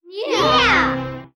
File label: "yeah" kids